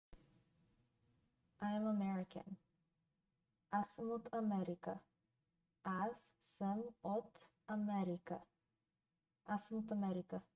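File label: I am American, Bulgarian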